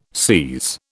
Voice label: "SIS", British

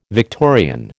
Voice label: "Victorian", American

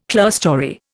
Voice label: "clearstory", Indian

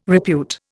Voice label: "repute", Indian

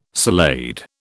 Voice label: "salade", British

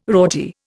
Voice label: "rorty", Indian

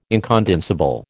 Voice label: "incondensable", American

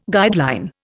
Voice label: "guideline", Indian

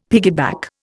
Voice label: "piggyback", Indian; Dictionary

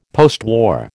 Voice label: "postwar", American

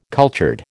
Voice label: "cultured", American

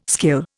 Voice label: "skill", Indian

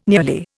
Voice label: "nearly", Indian

